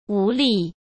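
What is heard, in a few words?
"无力", Mandarin Chinese; hsk; chinese-flashcards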